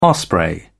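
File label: "osprey", British English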